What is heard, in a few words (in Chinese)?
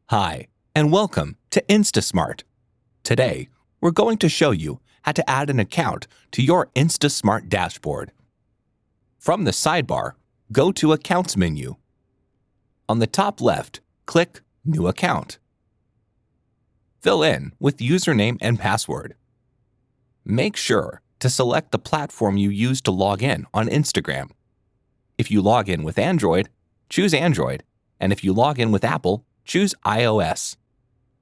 外籍英男 自然叙述